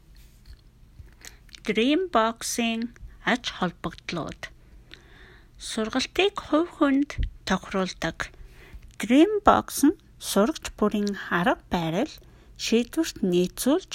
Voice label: Mongolian; Dreambox